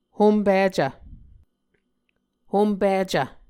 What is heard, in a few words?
"hompicv", Muscogee